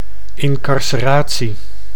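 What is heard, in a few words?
"incarceratie", Dutch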